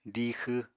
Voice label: "diixw", Apatani